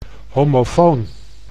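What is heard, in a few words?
"homofoon", Dutch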